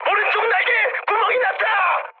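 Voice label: Korean right wing mood high, speech; War Thunder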